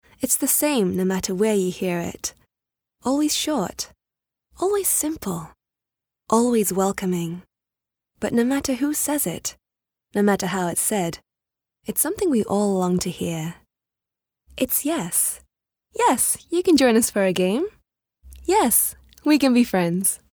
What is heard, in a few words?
Warm Friendly